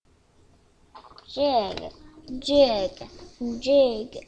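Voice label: "jig", spoken word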